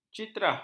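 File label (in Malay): "citah", Malay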